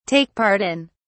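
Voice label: "take part in", British English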